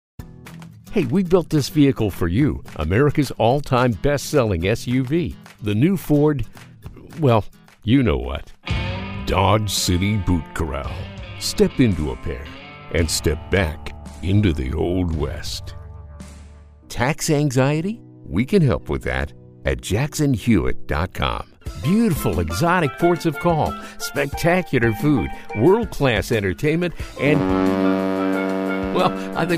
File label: Commercial